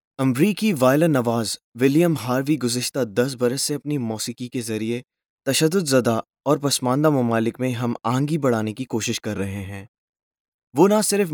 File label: hindi